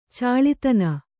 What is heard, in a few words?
"ಚಾಳಿತನ", Kannada